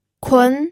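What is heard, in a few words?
"kun", second tone, pinyin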